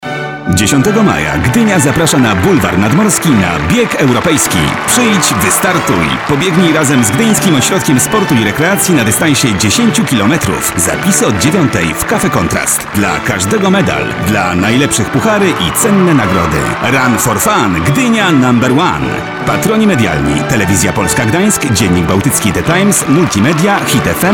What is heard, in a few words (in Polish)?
spot radio